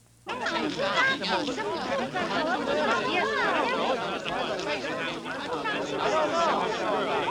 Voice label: Men And Women Talking Quickly

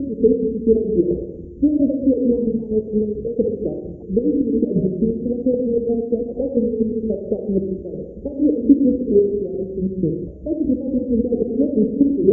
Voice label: Muffled Talking Female

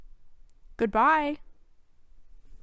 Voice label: Annoyed Goodbye

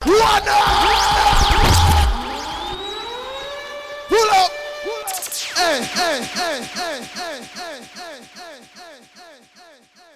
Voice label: Voice Sound Effects Whadatt

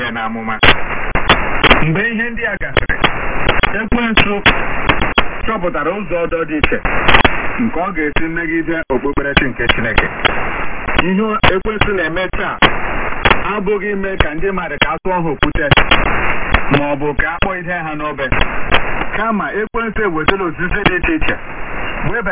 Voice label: African Language

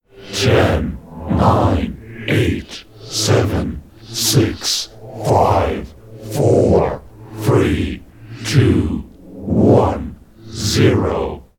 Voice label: Cyborg Countdown

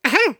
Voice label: Aha Mouse Squirrel Cartoon

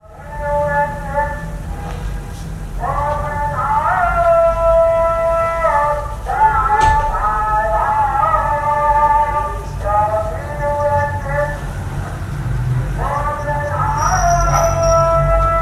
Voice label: Street Peddler Kunming

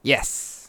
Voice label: Male Yes

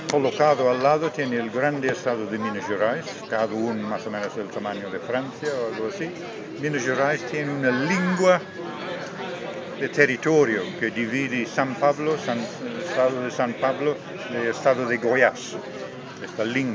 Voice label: Talks Spanish

